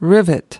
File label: "rivet", us